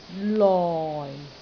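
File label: "loi4", Cantonese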